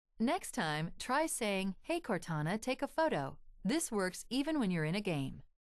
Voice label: take a photo, en-us; Windows; HoloShell

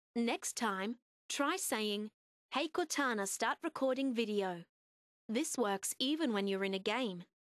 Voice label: take a video, en-au; Windows; HoloShell

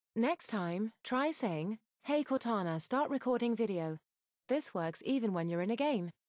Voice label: take a video, en-gb; Windows; HoloShell

